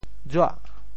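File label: "juah8", Teochew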